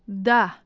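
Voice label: "dah" Tajik female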